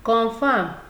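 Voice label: "confam", Nigerian Pidgin, female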